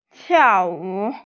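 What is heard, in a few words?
"tiao4wu3", Mandarin Chinese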